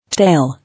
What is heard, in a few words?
"Tale", English